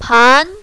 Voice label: "pan2", mandarin, female